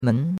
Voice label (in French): "men2", chinois; Cours-de-Chinois